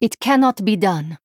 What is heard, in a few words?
Female Draenei Error Generic